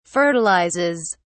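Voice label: "fertilizes", British English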